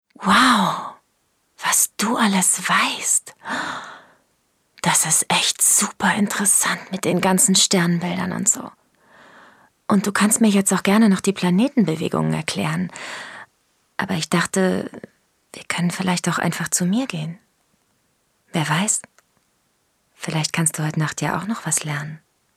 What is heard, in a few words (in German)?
Synchron